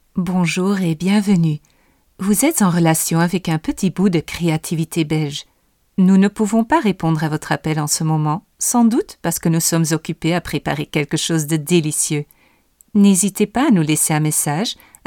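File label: Voice, voice-over, French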